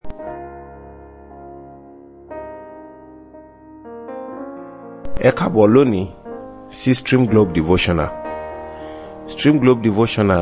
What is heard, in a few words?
Devotional Audio Yoruba